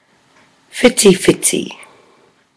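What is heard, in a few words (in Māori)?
"whitiwhiti", Māori word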